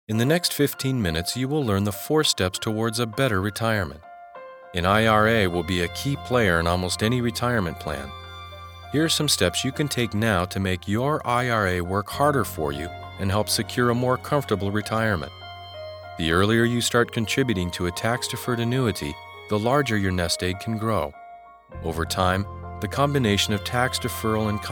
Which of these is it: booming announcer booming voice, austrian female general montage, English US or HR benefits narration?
HR benefits narration